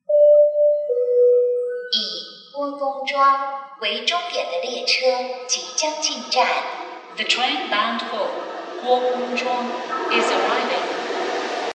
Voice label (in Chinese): 9号线 郭公庄终点进站